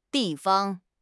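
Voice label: "di4 fang1", Chinese; HSK3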